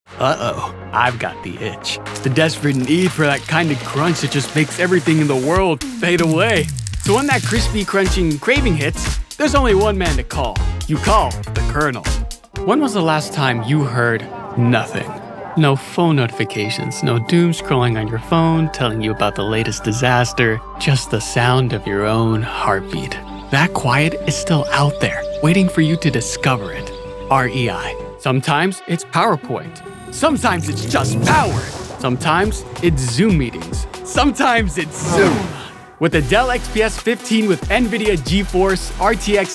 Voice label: Commercial